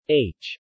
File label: "H", male